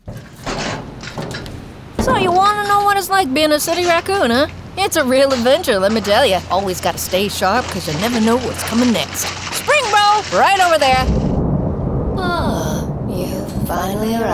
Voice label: Animation Demo

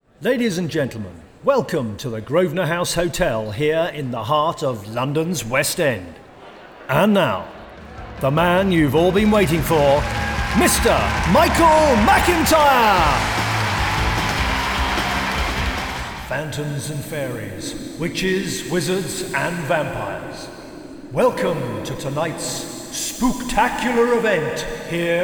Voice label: VOG

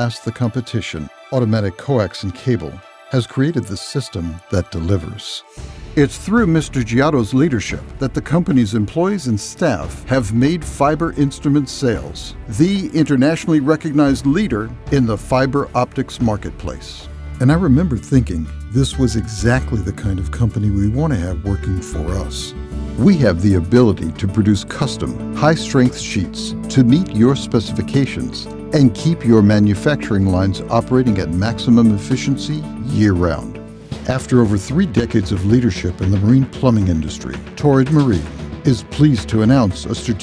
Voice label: Corporate

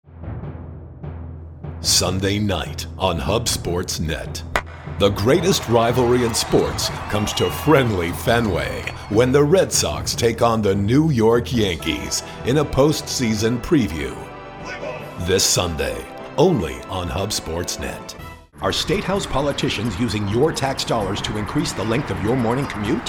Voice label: Promo

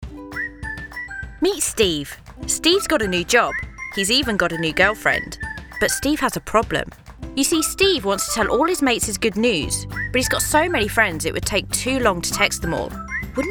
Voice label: Explainer

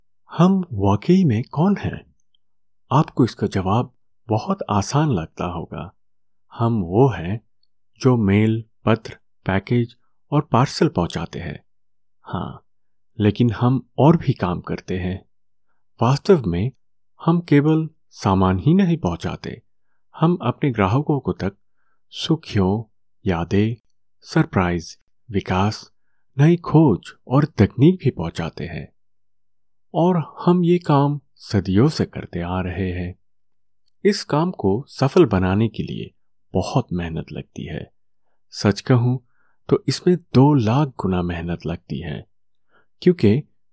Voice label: Hindi eLearning

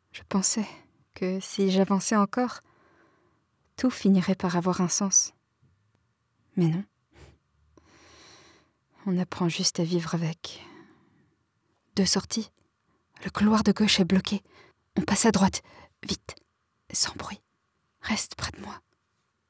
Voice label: Video game French jeu video

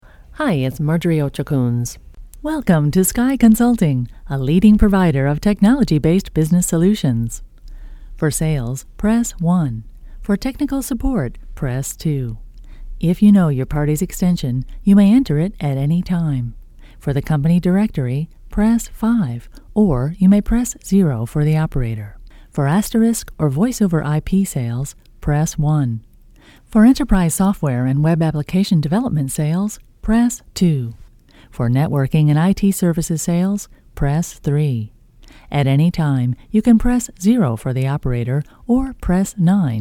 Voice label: on hold Spanish English phone prompts

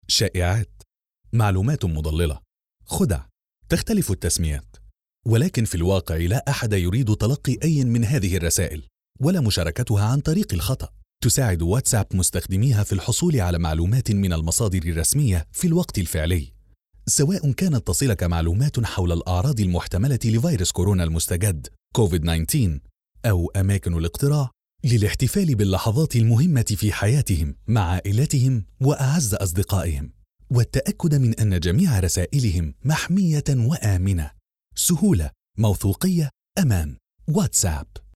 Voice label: Home Studio Example

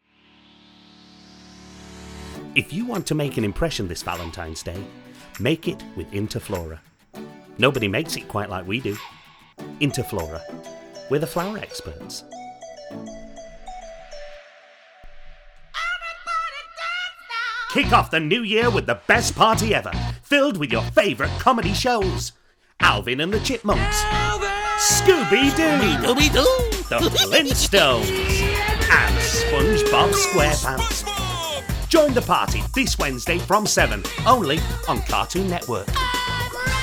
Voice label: commercial voice

